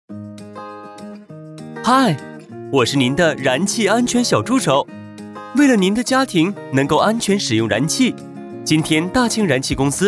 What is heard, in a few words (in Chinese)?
科普活泼清亮安全用气常识